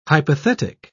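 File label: "hypothetic", British English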